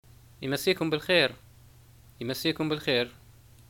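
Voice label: "greeting evening", Libyan Arabic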